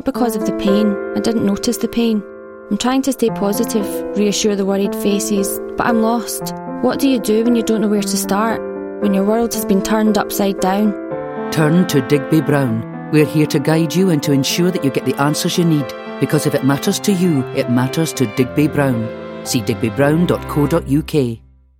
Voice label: Radio; Digby Brown

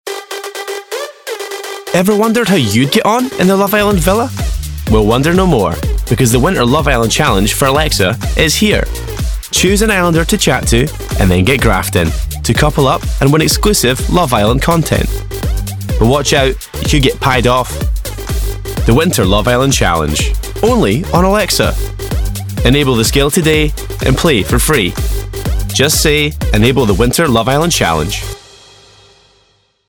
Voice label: Commercial, Scottish voice overs

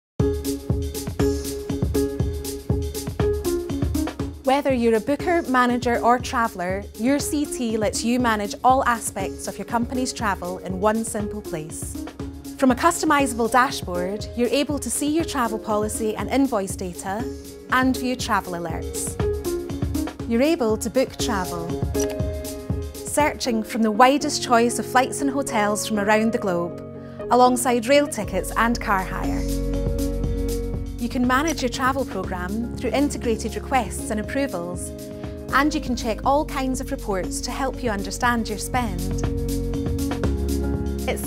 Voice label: Explainer

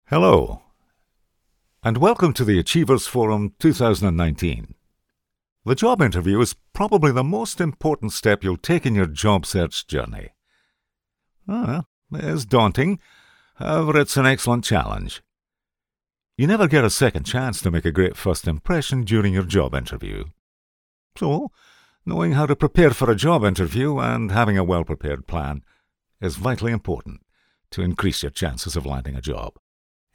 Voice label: Narration Conversational

